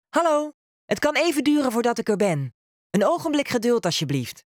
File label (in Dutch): Ring Nederlandse snelle antwoorden